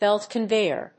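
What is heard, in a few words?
"belt conveyor", English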